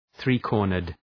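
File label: "three-cornered", English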